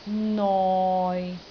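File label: "noi6", Cantonese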